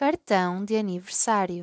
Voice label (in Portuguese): "Cartao de Aniversario", Portuguese; happy birthday in portuguese